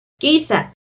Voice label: "quiza", Náhuatl; salir; INALI; UTexas; NAHUATLAHTOLLI

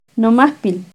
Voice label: "nomahpil", Náhuatl; mi dedo; NAHUATLAHTOLLI

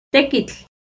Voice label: "tequitl", Náhuatl; trabajo; NAHUATLAHTOLLI

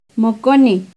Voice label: "moconeuh", Náhuatl; tu hija(o); INALI; UTexas; NAHUATLAHTOLLI

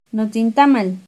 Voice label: "notzintamal", Náhuatl; mi gluteo; INALI; UTexas; NAHUATLAHTOLLI

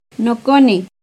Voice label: "noconeuh", Náhuatl; mi hijo(a); NAHUATLAHTOLLI

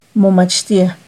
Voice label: "momachtiah", Náhuatl; ellas(os) estudian; NAHUATLAHTOLLI

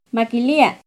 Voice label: "maquilia", Náhuatl; pegar, golpear; NAHUATLAHTOLLI

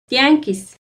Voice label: "tianquiz", Náhuatl; mercado; NAHUATLAHTOLLI

